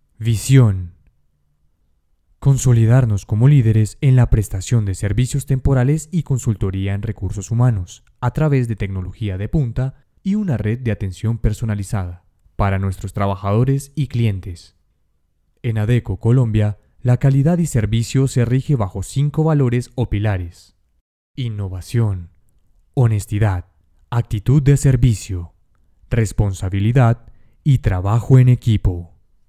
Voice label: institucional; adeco

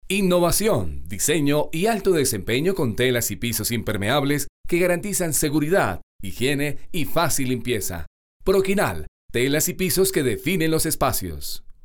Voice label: voz comercial

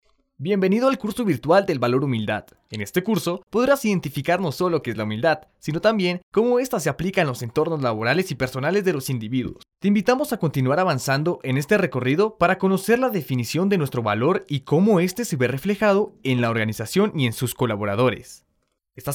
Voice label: Muestra Voz didactica

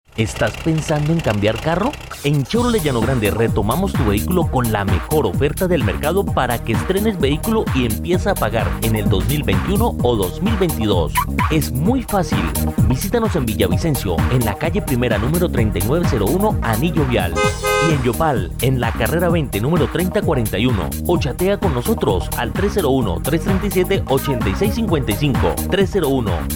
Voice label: voz comercial

